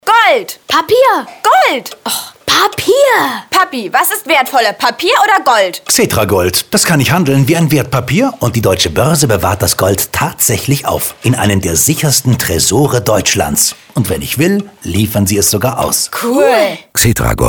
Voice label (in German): Funkspot; Xetra Gold